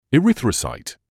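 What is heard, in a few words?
"erythrocyte", British English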